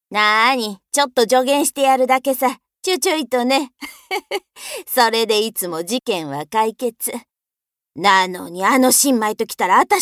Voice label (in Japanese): 中年